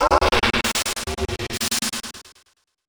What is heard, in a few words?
VOICEFX TEKNO 140, Techno, Voice